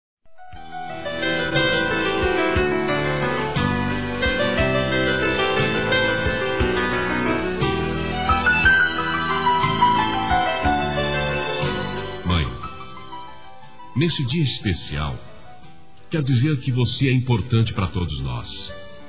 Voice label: dia das mães masculino; Telemensagens 2017

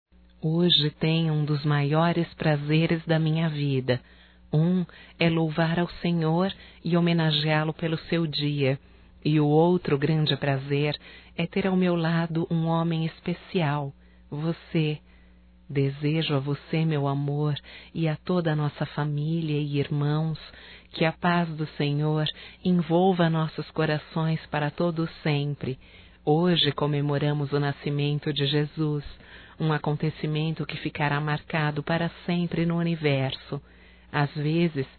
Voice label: NATAL ROMANTICO, Telemensagens, fem; Telemensagens 2017; Natal & Ano Novo

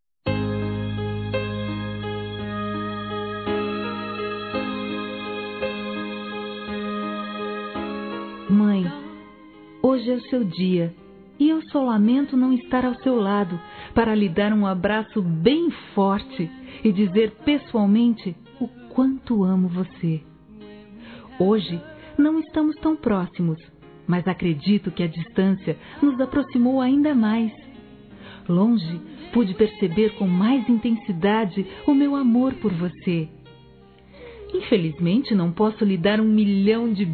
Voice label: MÃE DISTANTE F, Telemensagens, Dia das Mães; Telemensagens 2017

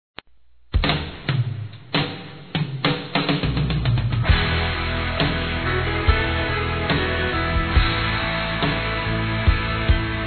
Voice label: Aniversário Geral Infantil Masculino; Telemensagens 2017; Aniversários

